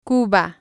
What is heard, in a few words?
"cuba", spanish